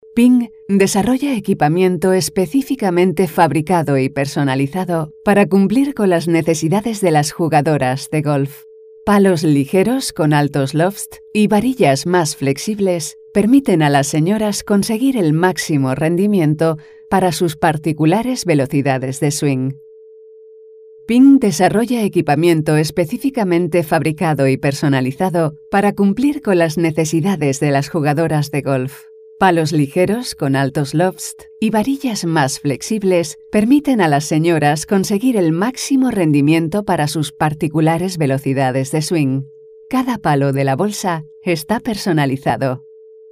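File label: Spanish Spain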